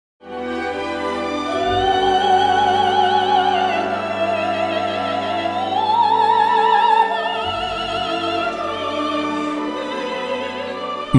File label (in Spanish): Spot musical